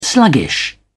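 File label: "sluggish", English, British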